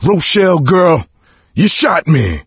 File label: friendly fire producer, coach, survivor voice; video game; source engine; left 4 dead 2